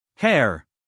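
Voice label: "hair", American English male; everyone-can-use-english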